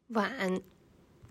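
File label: "碗", Chinese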